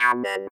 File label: robot voice